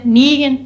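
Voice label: "nigon", Old English, female; niɣən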